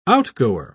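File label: "outgoer", British English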